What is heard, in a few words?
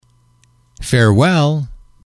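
"farewell", male, english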